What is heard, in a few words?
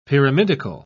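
"pyramidical", British English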